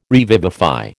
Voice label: "revivify", American